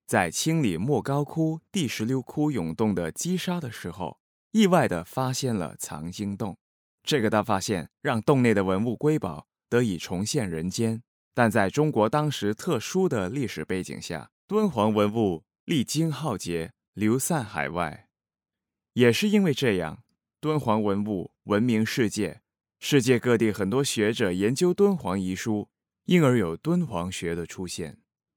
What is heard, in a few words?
male chinese voice over talent narrative